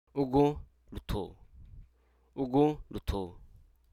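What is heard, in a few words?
Hruso Aka; cheap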